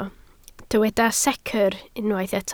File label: "secr", Welsh